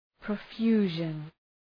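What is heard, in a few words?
"profusion", English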